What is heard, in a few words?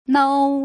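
"nou", Putonghua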